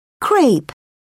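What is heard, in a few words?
"crepe", British English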